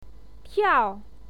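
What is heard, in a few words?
"tiao", Mandarin Chinese, fourth tone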